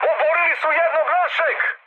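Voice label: Serbian aircraft destroyed mood high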